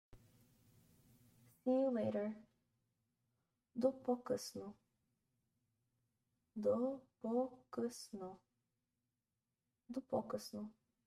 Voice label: BGN See you later, Bulgarian; the talking bulgarian phrasebook

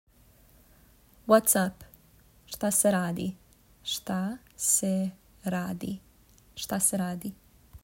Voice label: Whats up, serbian

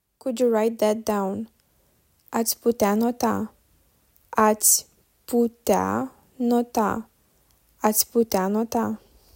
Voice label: Romanian Could you write that down; talking romanian phrasebook

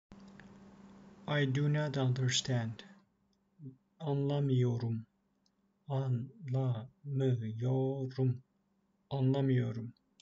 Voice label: i do not understand, turkish; the talking turkish phrasebook

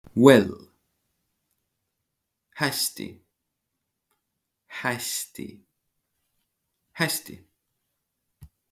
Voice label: Well, Estonian; the talking estonian phrasebook

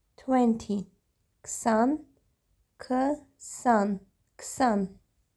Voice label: "20 ksan", Armenian

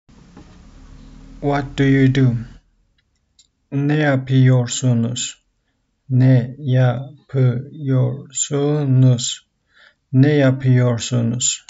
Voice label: what do you do, turkish; the talking turkish phrasebook

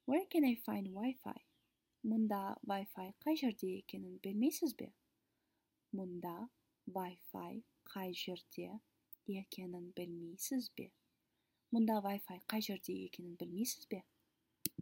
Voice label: Kaz Where can I find WI-FI

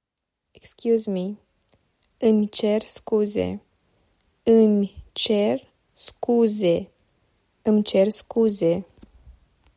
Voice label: "Excuse me", Romanian

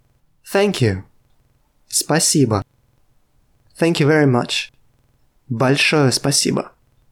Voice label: RUS Thank you thank you very much

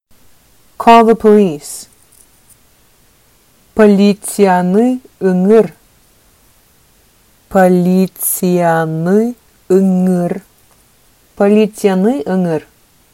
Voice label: Sakha Call the police

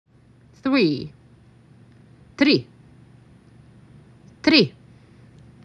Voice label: MAC 6, macedonian phrasebook

